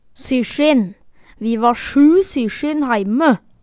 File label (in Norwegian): sysjin, Numedalsmål